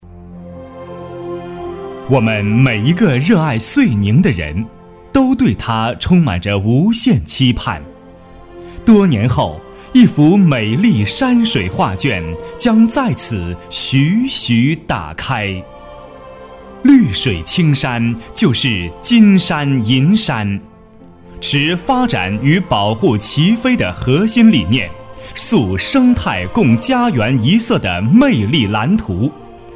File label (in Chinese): 专题 政府 自然, 男; 遂宁